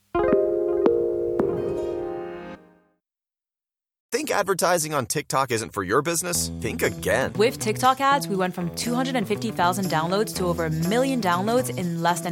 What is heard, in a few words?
gujarati news bulletin; ૨૫ નવેમ્બર ૨૦૨૫: ઓસ્ટ્રેલિયાની મુખ્ય અપડેટ; SBS Gujarati